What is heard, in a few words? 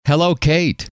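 hello, male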